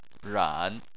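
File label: "ran", Chinese, tone 3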